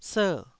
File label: "ser4", taiwanese